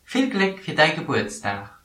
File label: happy birthday, luxembourgish